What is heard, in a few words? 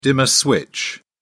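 "dimmer", British English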